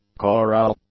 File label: "chorale", English